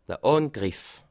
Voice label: "angriff", alsace

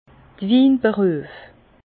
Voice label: "winberuef", Bas Rhin; les métiers du vin

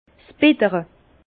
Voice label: "bittere", Bas Rhin; lamertume; vin

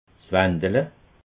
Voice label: "wandele", alsace

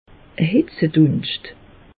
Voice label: "hitzedunscht", alsace; meteo

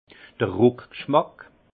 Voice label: "ruckgschmack", alsace; vin; rétro olfaction

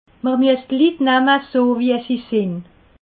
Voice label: "litt", alsace

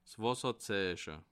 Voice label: "wasserzeiche", alsace

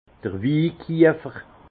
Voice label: "wikiefer", alsace; tonnelier caviste

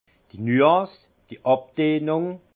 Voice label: "nuance", alsace; vin

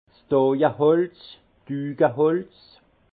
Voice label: "doyeholz", alsace; vin; merrain

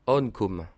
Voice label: "ankumme", alsace

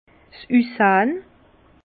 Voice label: "ussahn", Bas Rhin; vin; aspect

